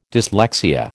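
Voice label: "dyslexia", American English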